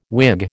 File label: "Whig", American